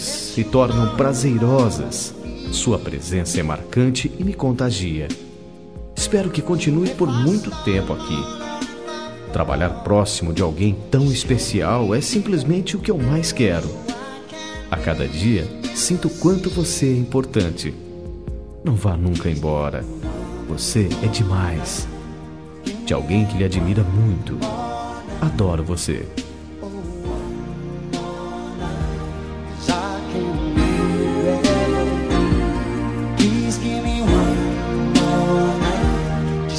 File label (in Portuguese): conquista colega trabalho masc; Telemensagens; Conquistas Cantadas paqueras; Telemensagens 2017